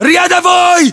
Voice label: rank private, ru; localized english